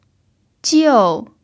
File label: "jiu4", Mandarin pinyin